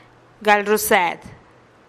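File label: "gal ruused", Teotitlán del Valle Zapotec; estudiar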